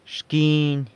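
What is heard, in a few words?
"xquiny", corner, San Lucas Quiaviní Zapotec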